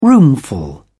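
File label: "roomful", English, British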